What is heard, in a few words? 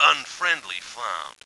Unfriendly found; KKND